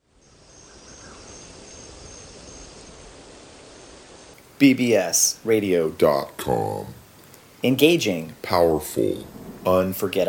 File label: bbs radio promo